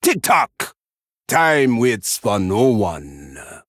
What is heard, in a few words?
Male Troll Bark